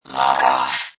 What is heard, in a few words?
Robot Voice, Robot Type 1 voice; Robot Sound Effects; LynneMusic